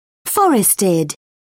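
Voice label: "forested", British English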